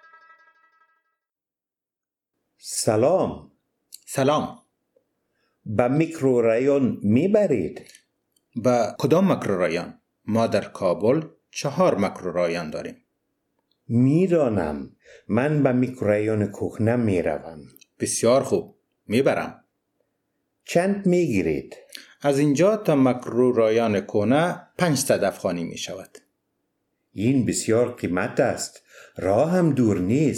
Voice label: Dialog, Dari Persisch